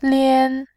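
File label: "lian", Mandarin Chinese, first tone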